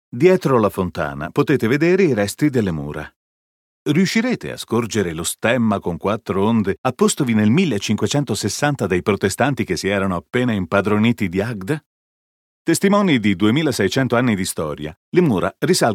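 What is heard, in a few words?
it audioguide, Italian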